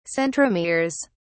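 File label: "centromeres", British English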